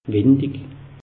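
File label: "windig", Alsatian; meteo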